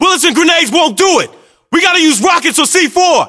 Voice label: voiceovers, US; english

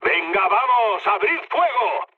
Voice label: attack position, mood low, speech; War Thunder

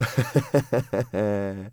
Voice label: mutter; Escape from Tarkov

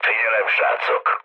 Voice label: near waypoint, mood low, speech hun; War Thunder

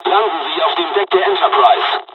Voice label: landing, dialogs german; War Thunder; midway

